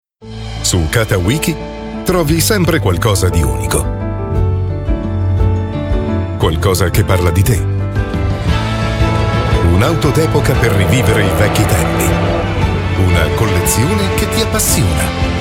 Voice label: italian commercial; italian voice over artists

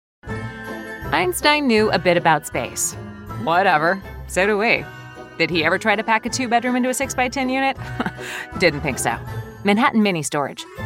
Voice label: english american commercial; english american voice actors

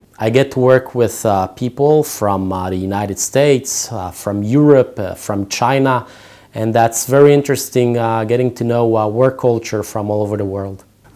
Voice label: Sample Before Restoration; audio post production

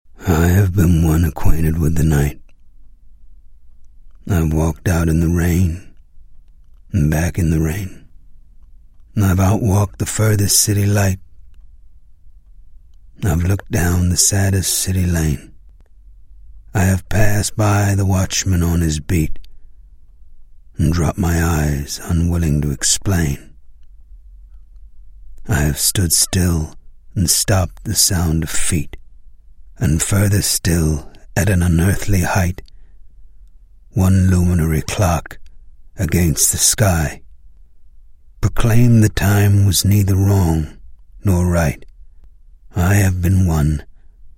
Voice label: english british narration

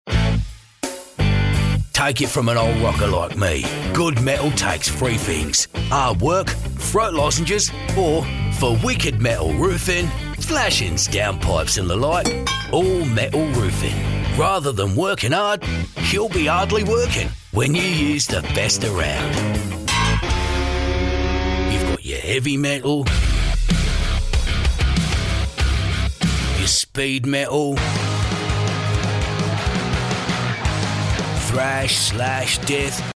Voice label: english australian commercial

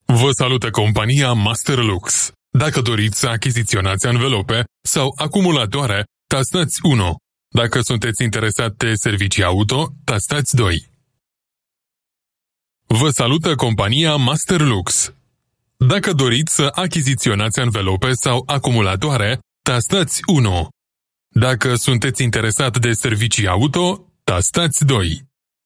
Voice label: romanian ivr

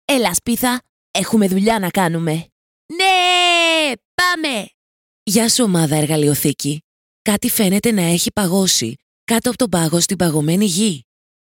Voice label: greek animation

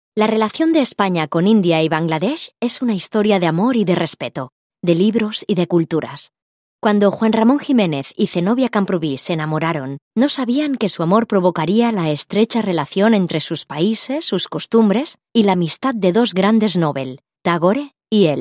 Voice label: spanish documentaries

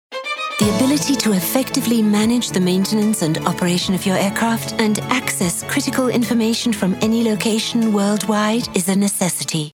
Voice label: english international documentaries

